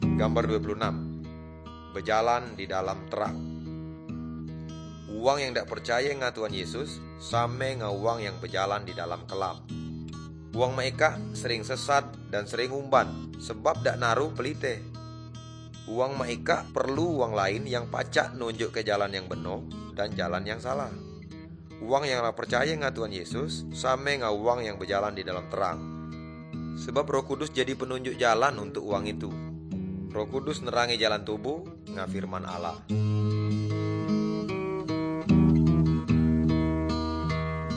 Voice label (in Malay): good news melayu tanjung raja